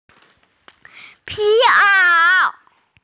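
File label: "leather jacket", female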